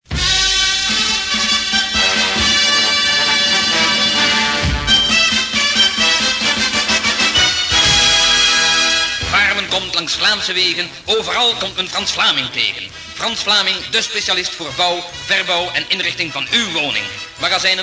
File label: commercial